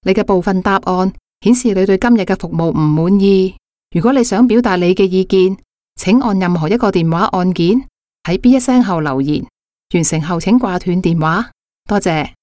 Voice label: Cantonese IVR, Cantonese Female; Etihad

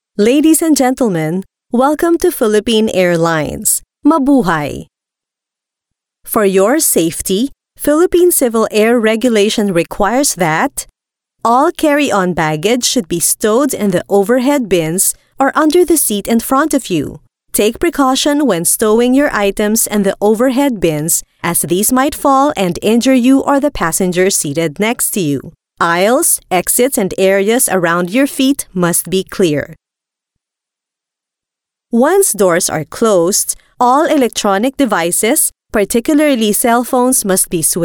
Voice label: Tagalog Accent English VO F

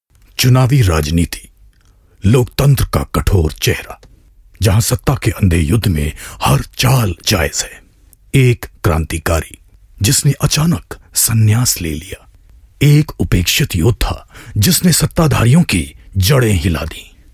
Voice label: Hindi Male Film Promo; India

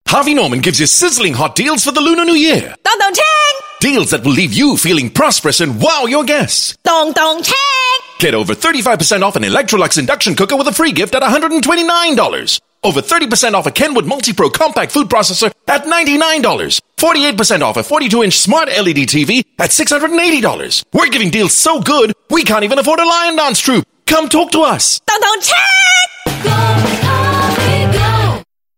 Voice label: Asian English F; Harvey Norman

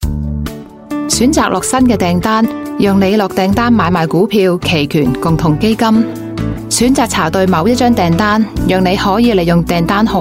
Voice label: Cantonese IVR, Cantonese Female

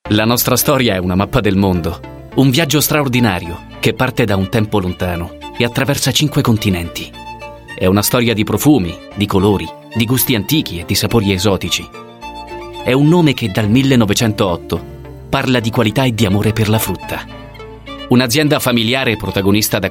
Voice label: Italian

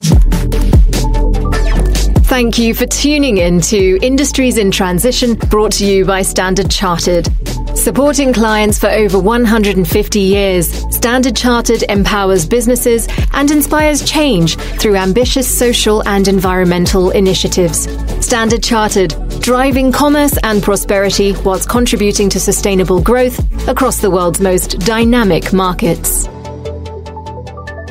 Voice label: UK English Podcast Outro

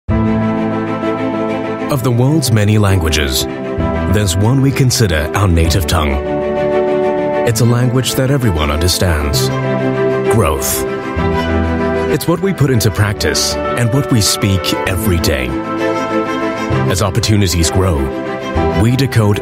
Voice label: Asian English; SGX

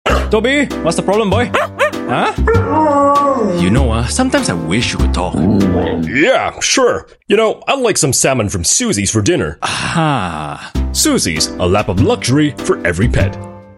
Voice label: Asian English; Suzy Pet Food

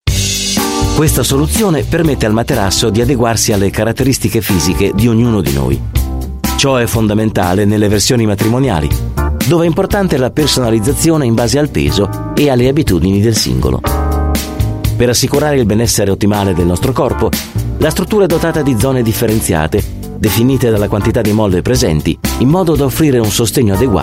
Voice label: Italian

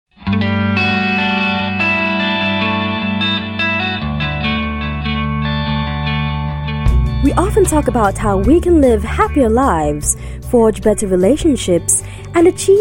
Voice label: English Dramatic Narrative

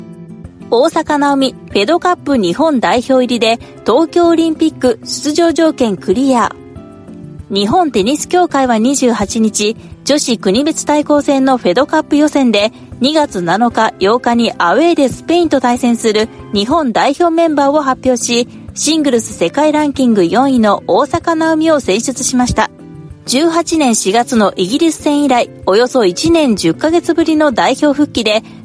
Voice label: Japanese Sports, Japanese Female

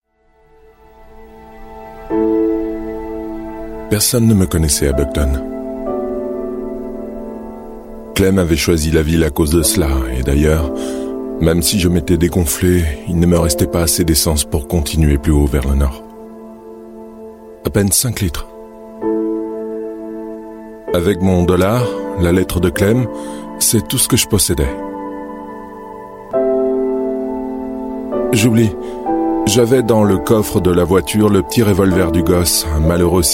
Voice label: French NARRATION

